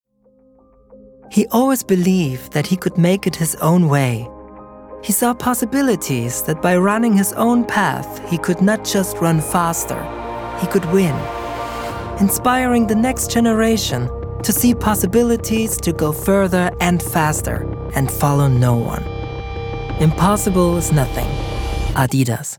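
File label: German English, male, inspirational advert; accent of germany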